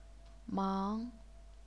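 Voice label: "mang", second tone, chinese pinyin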